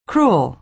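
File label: "cruel", American English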